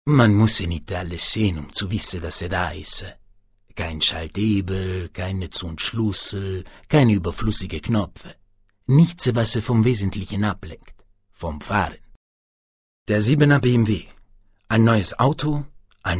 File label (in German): italienisch Akzent